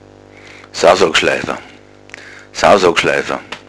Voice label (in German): sausack, bayerischen Dialekt; sau sog schleifa; Eichstätt: Sausack-Schleifer; Spottnamen; Lateinische Sprachrelikte im bayerischen Dialekt